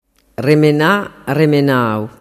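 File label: "remenanau", Sicilian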